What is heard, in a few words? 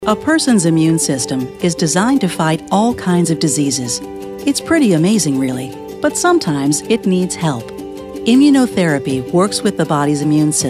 40s Female Narration